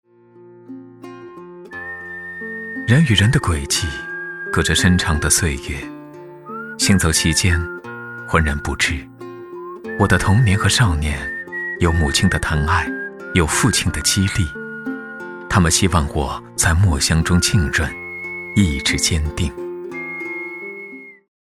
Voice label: 讲述感人; 人与人的轨迹; 纪实

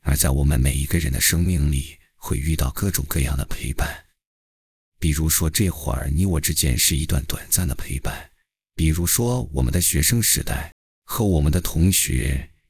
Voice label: 中年 低沉

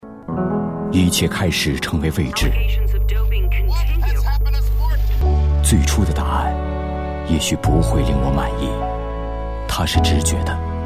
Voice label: 成熟浑厚声音广告; 阿里巴巴

